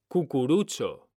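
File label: "CUCURUCHO", Spanish, male; mekanta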